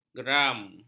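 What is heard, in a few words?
"geram", Malay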